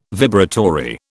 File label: "vibratory", British